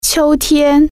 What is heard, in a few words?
"fall", Chinese